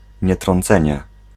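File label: "nietrącenie", Polish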